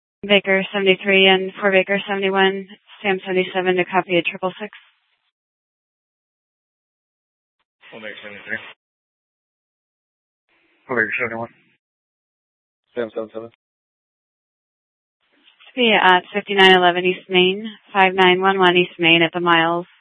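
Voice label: Police Department Dispatch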